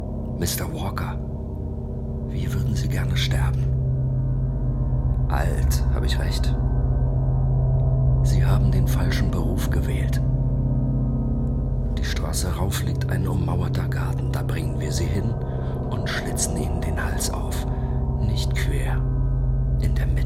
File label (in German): boese